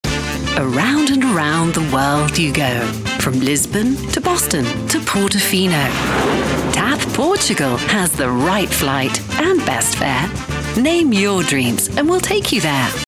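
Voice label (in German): UK English Freundlich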